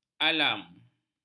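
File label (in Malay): "alam", Malay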